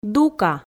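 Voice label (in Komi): "дука", Komi, female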